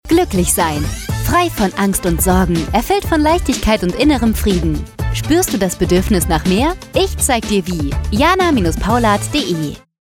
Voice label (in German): Funkspot